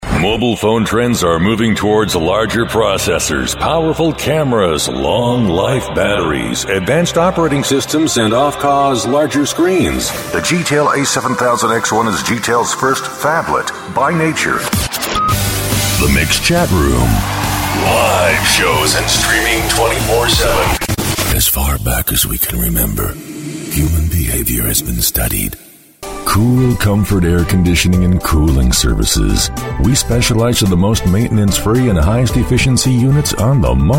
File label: COMMERCIALS